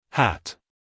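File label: "hat", American English, male; everyone-can-use-english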